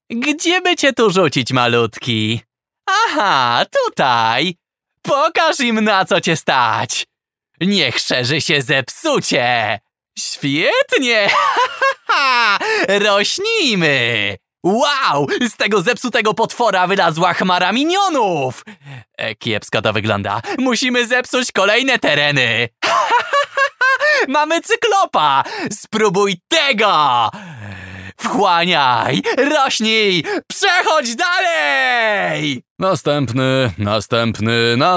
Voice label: polish voiceover acting and characters

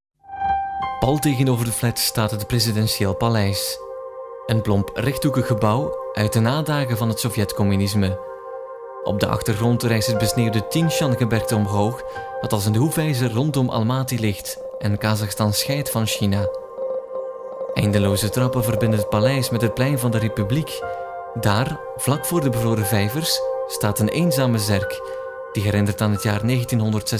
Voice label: flemish documentary

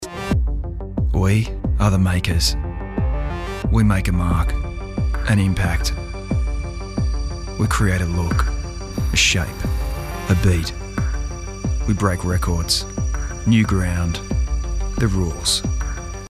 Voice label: australian male dark raw

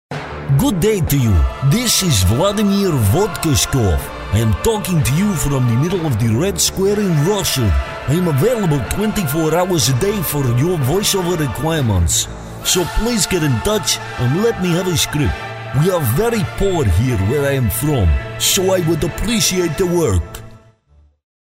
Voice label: russian

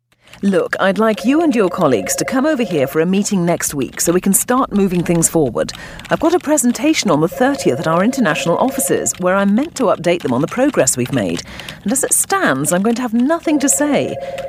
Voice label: natural vox pop

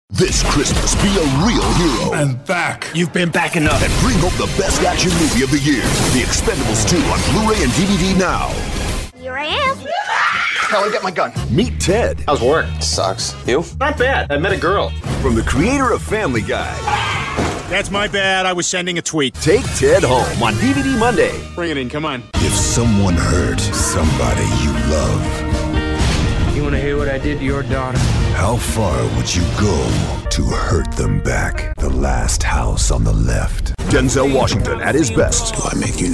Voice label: trailers promos idents

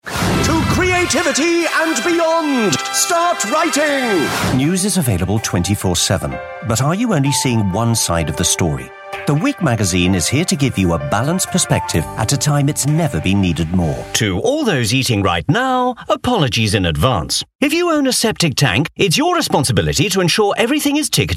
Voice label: commercial

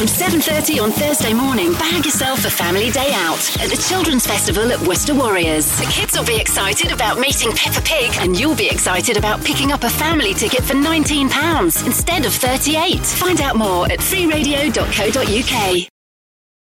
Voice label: radio promos

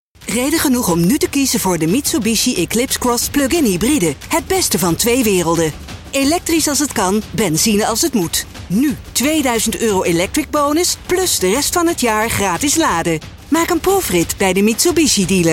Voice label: dutch female advert; mitsubishi

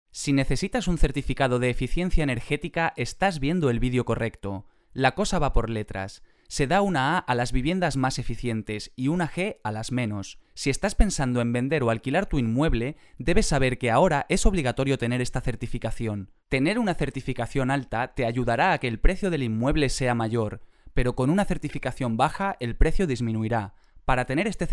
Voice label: spanish male, corporate

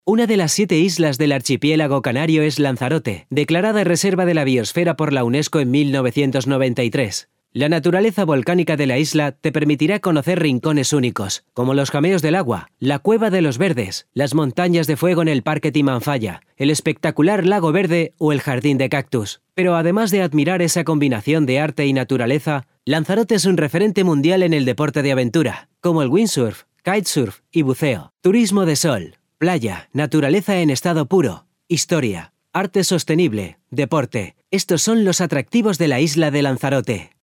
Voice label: spanish male narration

